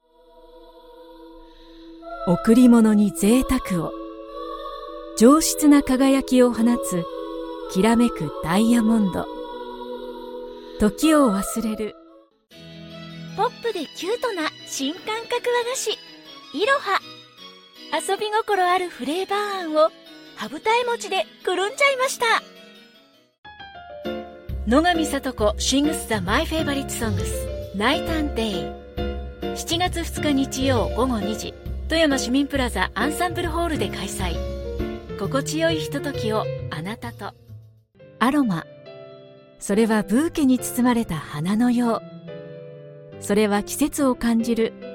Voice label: japanese narration